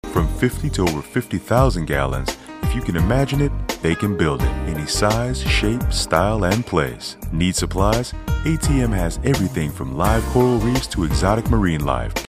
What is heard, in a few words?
Gravelly